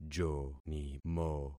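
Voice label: "jonimo", concatenated syllables; Affixing experiment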